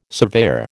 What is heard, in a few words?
"surveyor", American